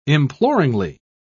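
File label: "imploringly", British English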